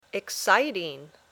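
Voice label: "exciting", English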